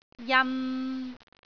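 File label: "jan3", Cantonese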